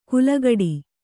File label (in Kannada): "ಕುಲಗಡಿ", Kannada